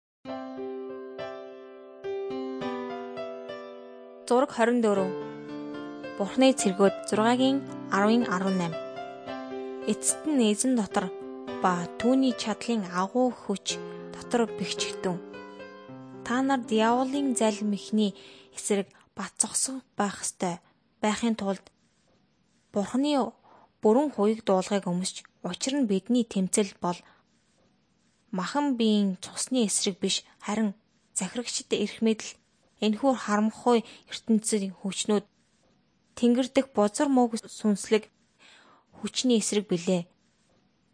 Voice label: mongolian